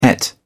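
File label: "ate", English, uk British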